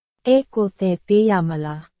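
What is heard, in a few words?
Burmese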